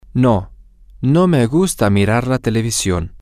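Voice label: Spanish, male; no I don't like to watch tv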